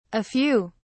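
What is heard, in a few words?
"a few", British English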